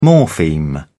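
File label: "morpheme", British English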